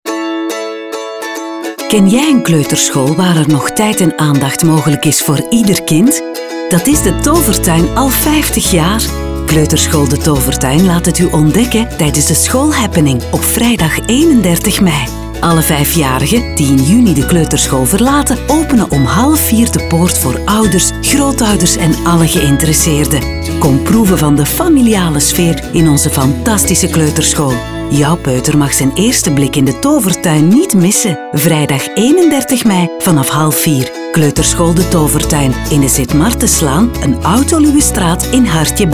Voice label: commercial; de tovertuin